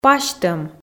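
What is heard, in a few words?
"пасьтӧм", Komi, female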